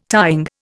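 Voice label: "tying", Indian English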